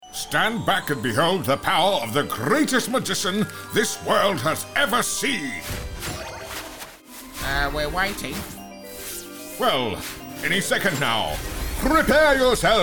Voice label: Animation; 9 lives